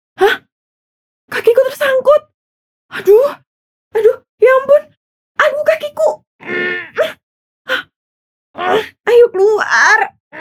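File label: character BAHASA INDO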